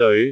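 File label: "deoi5", Cantonese; googleB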